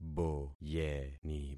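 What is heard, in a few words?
"boyeni", concatenated syllables; Affixing experiment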